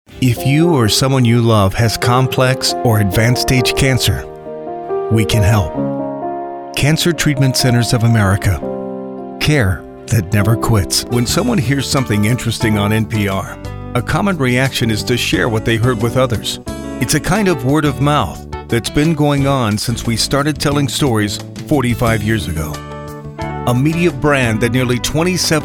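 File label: American conversational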